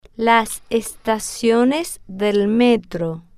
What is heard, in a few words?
"las Estaciones Del Metro", spanish